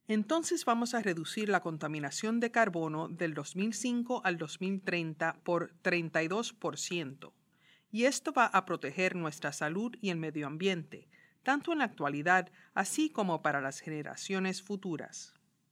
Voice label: spanish answer